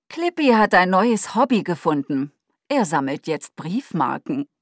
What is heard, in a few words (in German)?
Cortana deutsch O-Ton; Cortana Alpha